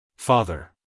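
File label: "father", American English, male; everyone-can-use-english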